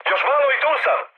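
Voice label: Serbian near waypoint mood high; War Thunder; speech srb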